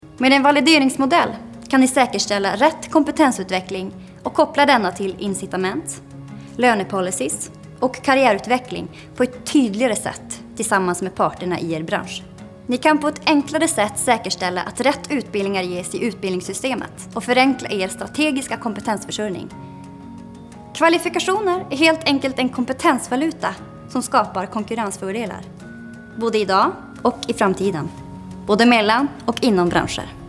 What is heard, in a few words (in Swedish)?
Informationsfilm